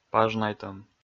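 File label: "пажнайтӧм", Komi, male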